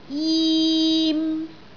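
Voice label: "jim3", Cantonese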